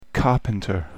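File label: "carpenter", English; jobs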